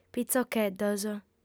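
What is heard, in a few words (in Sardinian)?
"pitzocheddos", Sardinian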